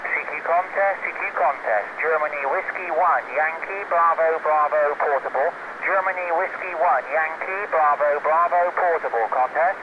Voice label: CQ standard mic